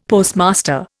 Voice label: "Postmaster", Indian; Dictionary